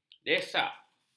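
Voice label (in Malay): "desak", Malay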